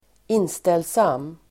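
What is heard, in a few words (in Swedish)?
"inställsam", Swedish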